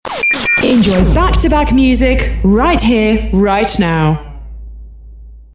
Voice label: Back to Back Music Girl